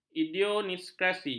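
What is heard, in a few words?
"idiosinkrasi", Malay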